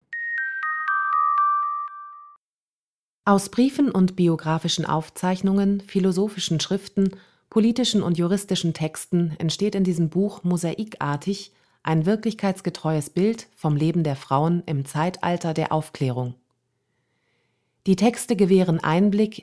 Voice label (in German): Sprecherdemo Sachtext; Frauen im Zeitalter der Aufklärung